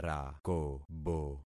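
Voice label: "rakobo", concatenated syllables; Affixing experiment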